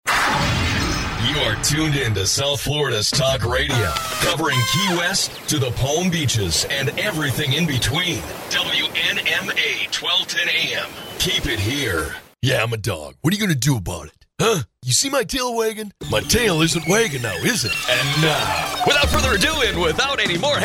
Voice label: english american promos